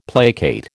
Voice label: "placate", American

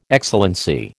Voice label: "Excellency", American

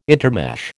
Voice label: "intermesh", American

